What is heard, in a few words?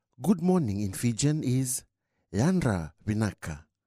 Good Morning, Fijian Language; Fijian Language Week; 2022 Pacific Language Weeks